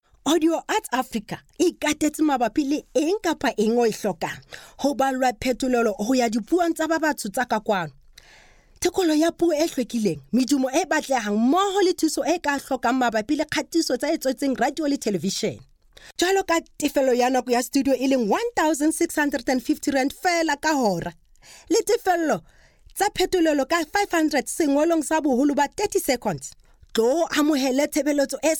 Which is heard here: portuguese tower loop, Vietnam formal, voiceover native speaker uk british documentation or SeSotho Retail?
SeSotho Retail